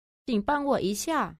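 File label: "qing bang wo yi xia", Chinese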